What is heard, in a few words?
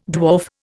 "dwarf", Indian